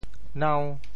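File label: "nau5", Teochew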